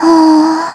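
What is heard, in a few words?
Vox Think, Korean; Xerah; kings raid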